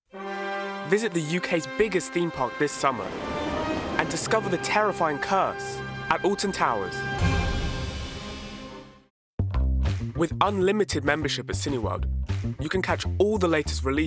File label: Commercials